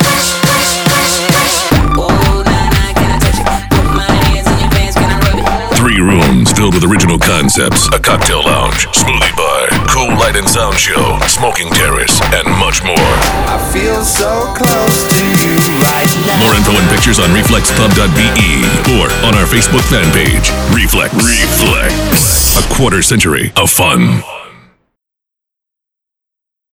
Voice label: party commercial; reflex westerlo